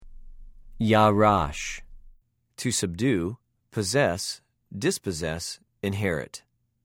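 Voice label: "יָרַשׁ", Hebrew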